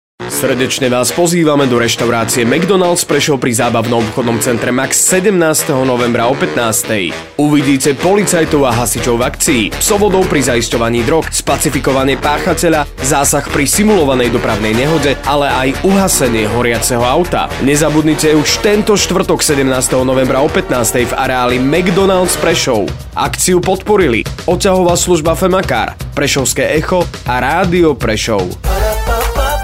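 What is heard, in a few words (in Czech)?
reklami spot; restauraciamcdonalds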